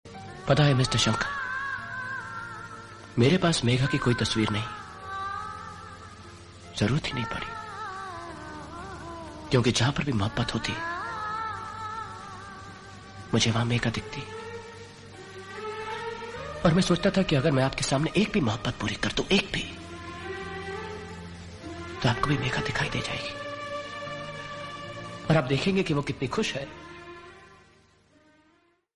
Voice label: Heart Touching Dialogue Ringtone; Shahrukh Khan